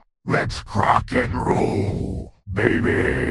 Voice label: happy; croc; fertig classic games